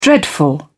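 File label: "dreadful", British English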